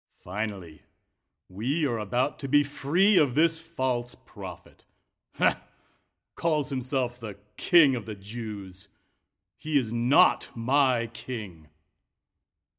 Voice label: Monologue Priest; living last words